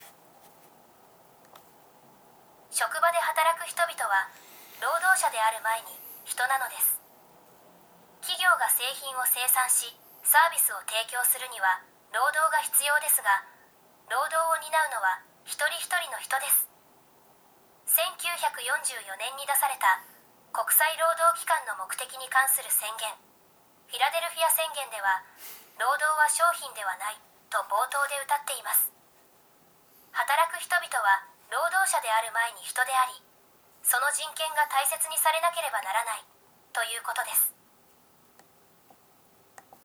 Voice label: elearning; japan; business and human rights